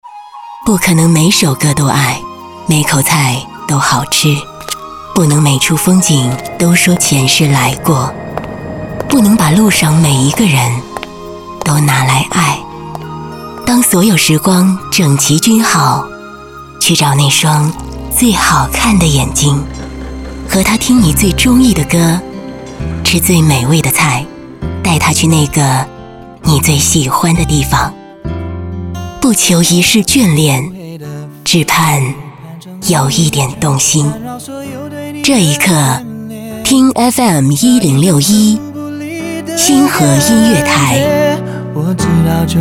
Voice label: 电台台宣; FM1061电台台宣

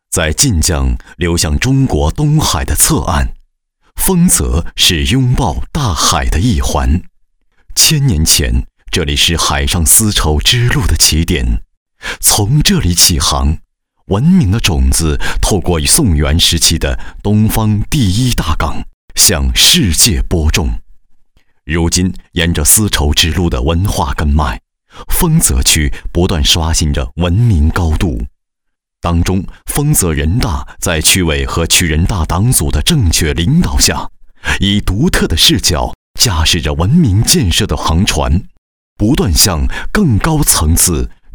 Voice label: 稳重磁性

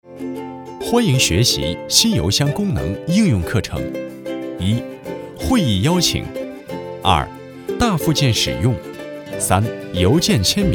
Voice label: 课件 轻松解说; 纪录片

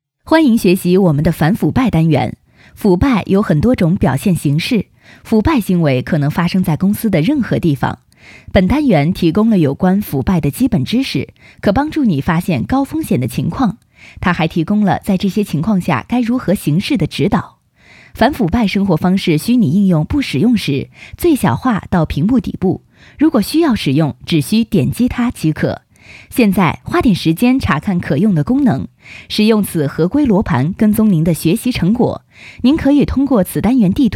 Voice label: 课件

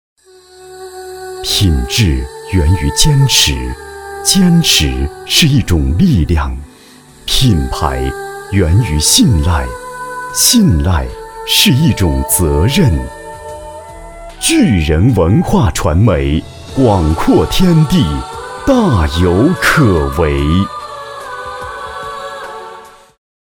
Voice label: 广告 品牌形象; 巨人文化

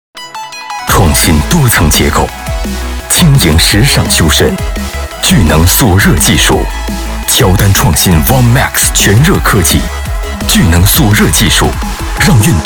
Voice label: 成熟浑厚声音广告; 广告 > 企业形象; 乔丹广告

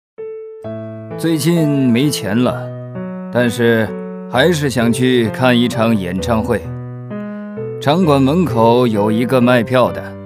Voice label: 模仿; 朱一旦

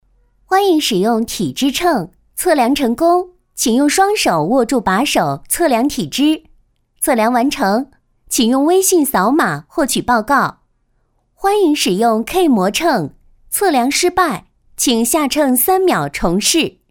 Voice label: 语音提示 体重秤提示

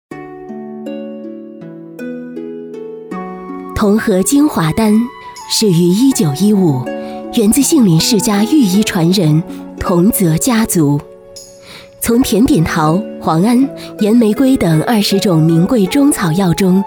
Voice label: 大气典雅; 彤和精华丹

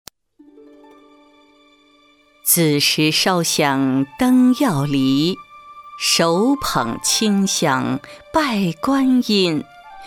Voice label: 解说-人物

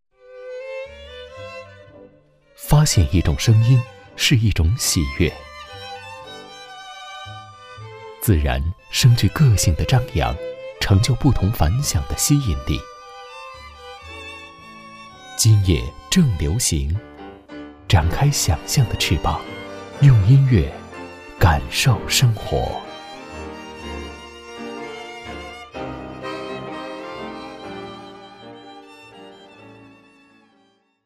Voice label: 电台片花; 今夜正流行

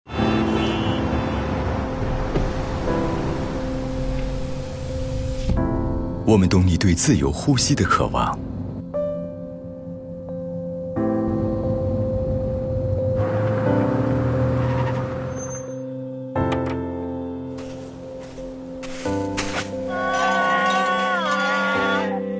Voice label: 成熟浑厚声音广告; 奔驰; 懂你才懂价值; 汽车交通

